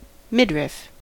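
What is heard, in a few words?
"midriff", American English; pronunciations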